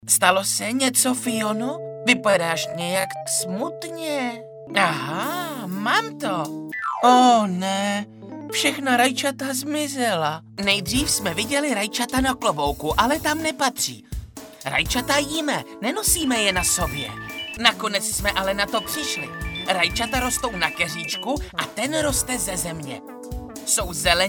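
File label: male, Czech, drama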